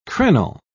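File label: "crenel", British English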